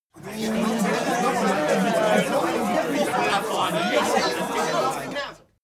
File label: talk upset short